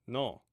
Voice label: "non", Omaha

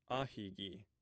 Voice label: "ahigi", omaha language

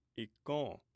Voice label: "ikon", Omaha

